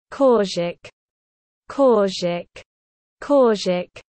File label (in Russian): "Korzhik", russian dog names